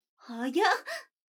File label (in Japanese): 女の子 台詞, ASMR